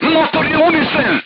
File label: voice message engine destroyed, serbian crew tanks